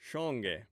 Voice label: "shonge", omaha language

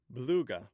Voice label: "bthuga", Omaha

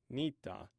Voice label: "ninta", Omaha

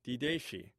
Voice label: "dideshi", Omaha

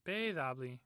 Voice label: "pethabthin", Omaha

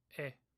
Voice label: "e", Omaha

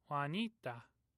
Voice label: "waninta", Omaha

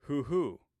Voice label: "huhu", Omaha

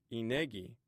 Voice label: "inegi", Omaha

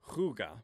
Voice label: "xuga", Omaha

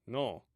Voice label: "non", Omaha

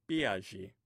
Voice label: "pizhi", Omaha

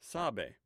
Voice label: "sabe", Omaha

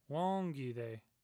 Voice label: "wongithe", Omaha

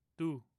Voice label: "tu", omaha language

